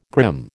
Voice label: "grim", American